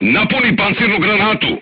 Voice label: voice message load AP, crew tanks serbian